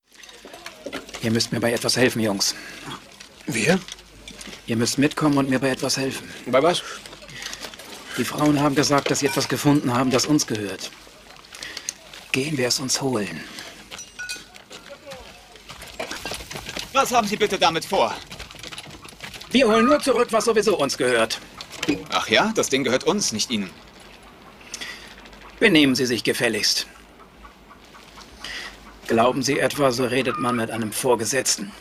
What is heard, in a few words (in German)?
synchron; winterkrieg